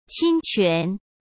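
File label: "侵权", Mandarin Chinese; hsk; chinese-flashcards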